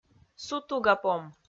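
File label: "сутугапом", Komi-Zyrian, female; audio dict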